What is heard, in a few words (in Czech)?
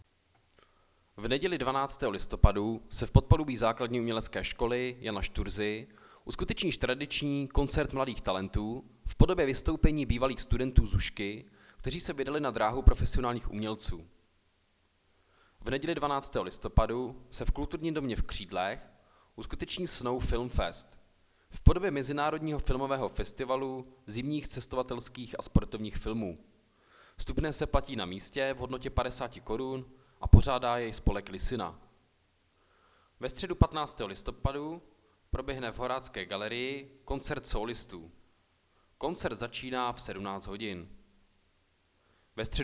hlášení městského rozhlasu